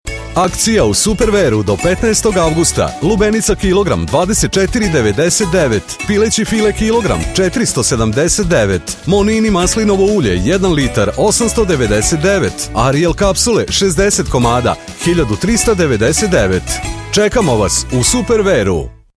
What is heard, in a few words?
TVC, serbian